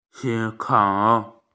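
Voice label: "think", Mandarin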